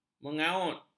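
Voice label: "mengaut", Malay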